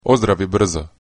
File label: get well soon, croatian; Ozdravi brzo!